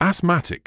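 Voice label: "asthmatic", British English; ass-MA-tik; æsˈmæ.tɪk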